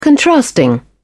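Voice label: "contrasting", British English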